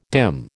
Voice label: "dim", American

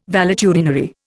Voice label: "valetudinary", Indian

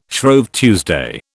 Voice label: "Shrove Tuesday", British

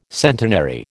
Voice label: "centenary", American

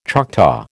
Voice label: "Choctaw", American

